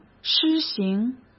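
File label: "施行", Mandarin Chinese; hsk; chinese-flashcards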